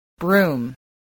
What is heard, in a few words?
"broom", spelling